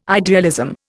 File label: "idealism", Indian